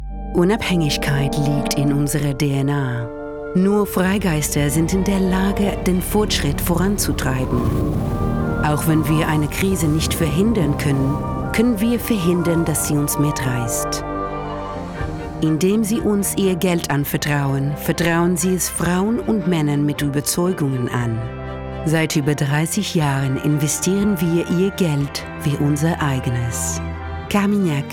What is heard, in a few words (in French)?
ALLEMAND; Carmignac